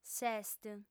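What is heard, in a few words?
"s'est", female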